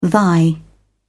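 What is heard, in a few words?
"thy", British English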